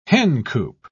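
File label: "hencoop", British English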